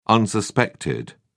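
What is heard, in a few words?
"unsuspected", British English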